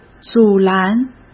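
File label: "阻拦", Mandarin Chinese; chinese-flashcards; hsk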